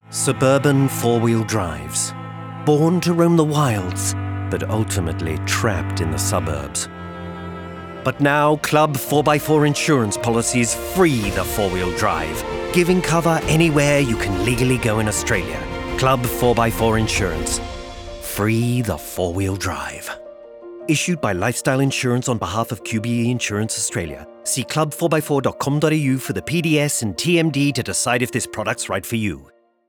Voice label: Radio